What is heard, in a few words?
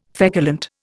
"feculent", Indian